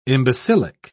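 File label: "imbecilic", British English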